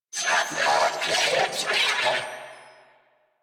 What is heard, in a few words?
Attack, Female; New War; Narmer Grineer